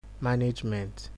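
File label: "management", Nigerian Pidgin, male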